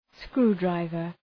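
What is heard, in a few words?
"screwdriver" English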